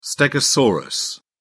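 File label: "stegosaurus", British English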